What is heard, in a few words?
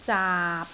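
"zaap6", Cantonese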